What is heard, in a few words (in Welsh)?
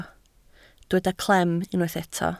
"clem", Welsh